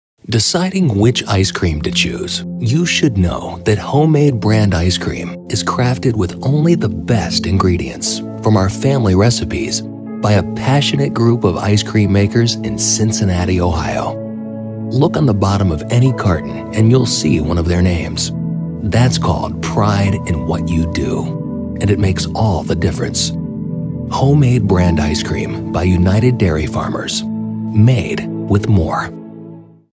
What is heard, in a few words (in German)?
TV Werbespots; Jugendfrei; NATIVE PROFISPRECHER